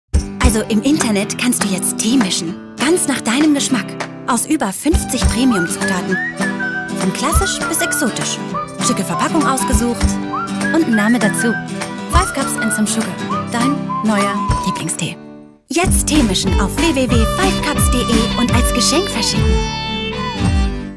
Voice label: TVC; FiveCups